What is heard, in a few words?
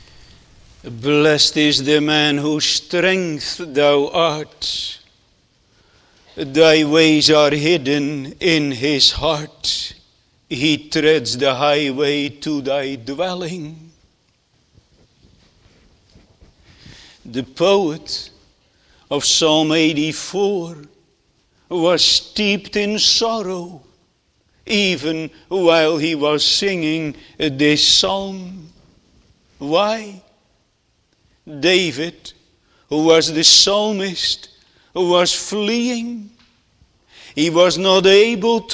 Sermon; True Blessedness